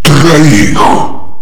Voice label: triple kill ultimate, male